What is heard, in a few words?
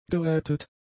"gerettet", German; adjectives